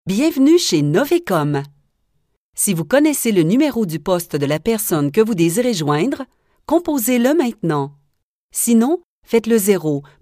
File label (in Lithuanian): (MOT) Prancuzu balsas